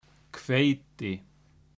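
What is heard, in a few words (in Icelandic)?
"hveiti", Icelandic; matarhefti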